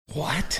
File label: whispering what